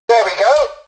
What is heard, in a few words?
tutorial dialogue, english; War Thunder